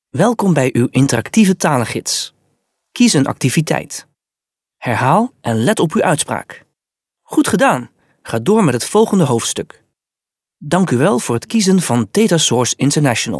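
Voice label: Dutch male corporate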